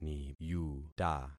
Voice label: "niyuta", concat syllables; Affixing experiment

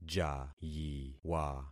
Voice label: "jayiwa", concat syllables; Affixing experiment

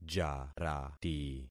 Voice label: "jarati", concat syllables; Affixing experiment

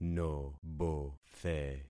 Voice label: "nobothe", concat syllables; Affixing experiment

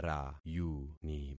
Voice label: "rayuni", concat syllables; Affixing experiment

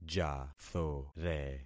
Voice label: "jathore", concat syllables; Affixing experiment

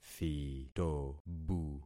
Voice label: "thitobu", concat syllables; Affixing experiment

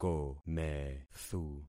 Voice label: "komethu", concat syllables; Affixing experiment

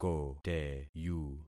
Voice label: "koteyu", concat syllables; Affixing experiment

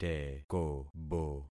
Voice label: "tekobo", concat syllables; Affixing experiment

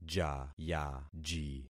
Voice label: "jayaji", concat syllables; Affixing experiment

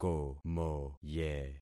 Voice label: "komoye", concat syllables; Affixing experiment

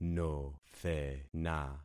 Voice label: "nothena", concat syllables; Affixing experiment

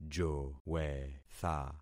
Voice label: "jowetha", concat syllables; Affixing experiment

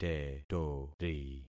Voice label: "tetori", concat syllables; Affixing experiment

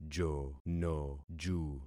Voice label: "jonoju", concat syllables; Affixing experiment

